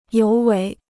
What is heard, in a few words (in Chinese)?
"尤为", Chinese